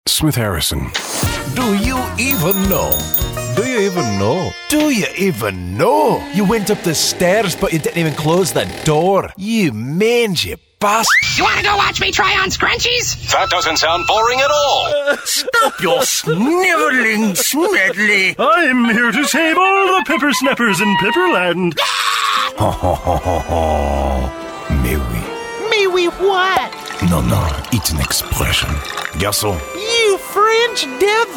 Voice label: M Character, voiceover, men

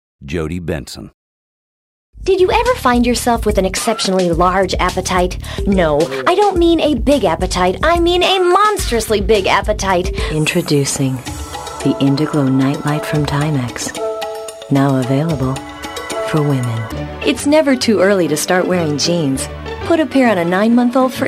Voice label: F Commercial, voiceover womens

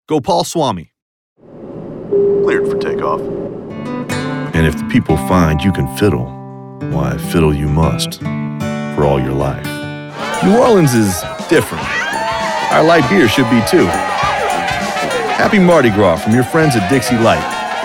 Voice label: voiceover mens

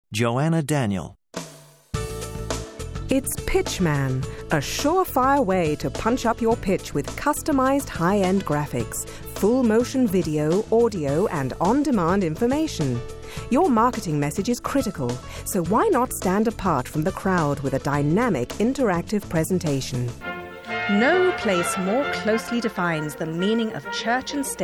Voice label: narration, women

